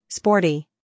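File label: "humor", British; sporty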